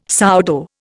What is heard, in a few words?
"sourdough", Indian